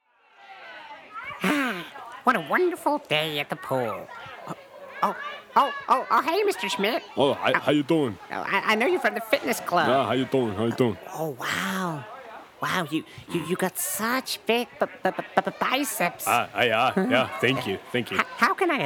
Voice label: Cartoon Voices; LOFT VOICES

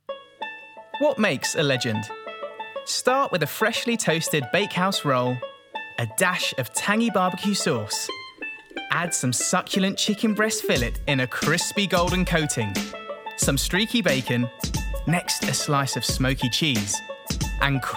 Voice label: ADVERT; CHICKEN LUX; LOFT VOICES

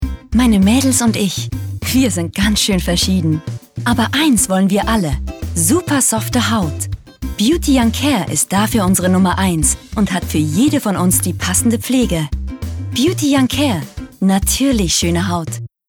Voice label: Spot; Beauty Young Care; LOFT VOICES

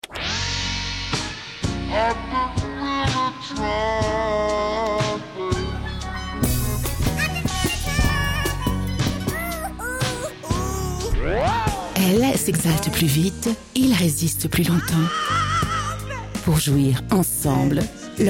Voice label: tv spot; durex

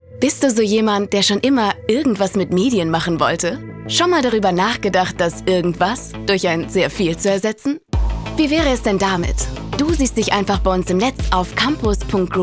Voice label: Image, Funk Spot, Commercial (Werbung), German; GroupM Recruiting

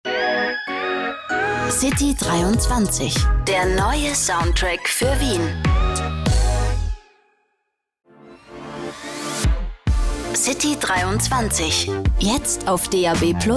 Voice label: Station Voice, German, Austrian; Station Voice Beispiel; Wienerisch, Bayrisch, Berlinerisch

